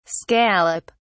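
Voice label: "scallop (s)", British English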